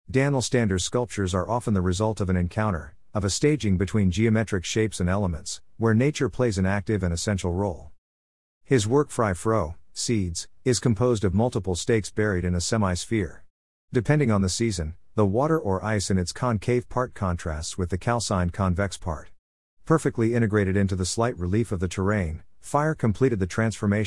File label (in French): anglais